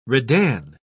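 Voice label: "redan", English, British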